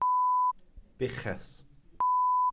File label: "buches", glossary